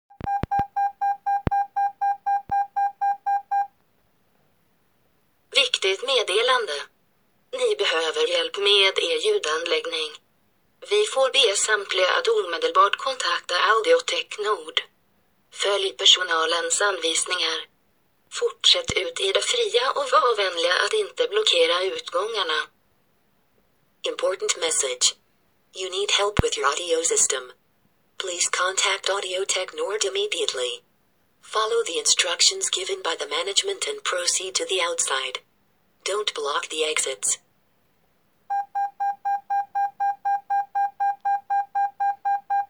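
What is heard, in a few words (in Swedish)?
utrymningsmeddelande telehorn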